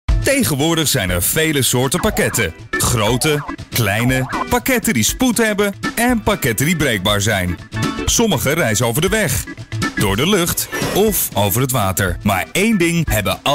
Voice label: International commercial